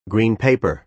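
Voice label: "green paper", American English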